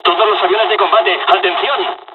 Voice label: dialogs spanish; War Thunder

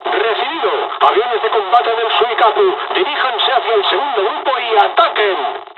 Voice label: dialogs spanish; War Thunder

